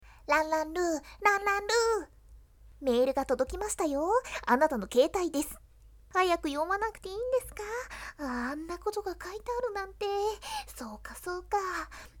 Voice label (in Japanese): chaku voice